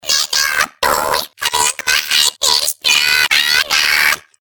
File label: alien speech